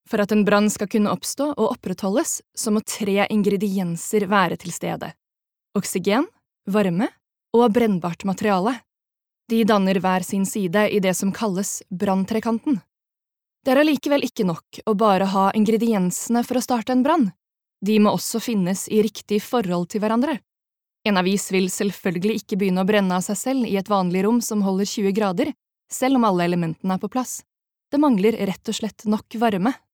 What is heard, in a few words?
Norwegian e-learning, norwegian, female